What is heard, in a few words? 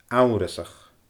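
"amharasach", Scottish Gaelic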